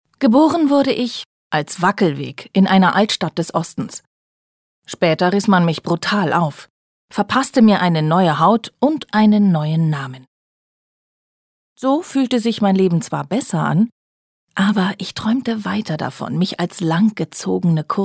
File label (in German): Schauspiel Neutral